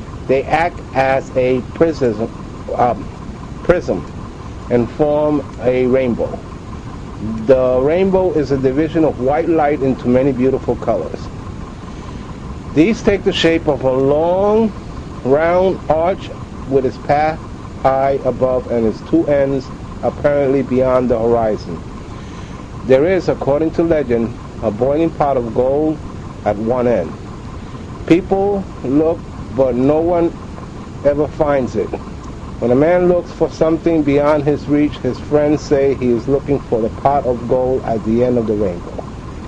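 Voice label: new york, International Dialects of English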